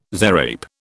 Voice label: "zarape", British

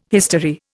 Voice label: "history", Indian

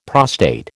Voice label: "prostate", American

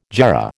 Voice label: "jarrah", American

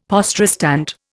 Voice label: "poste restante", Indian; Dictionary

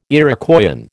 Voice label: "Iroquoian", American

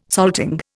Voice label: "salting", Indian

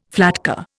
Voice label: "flatcar", Indian

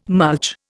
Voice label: "mulch", Indian

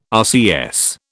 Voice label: "RCS", British

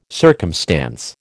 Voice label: "circumstance", American

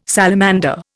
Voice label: "salamander", Indian

